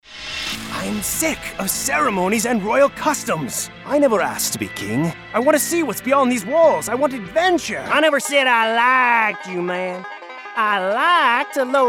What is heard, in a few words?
animation male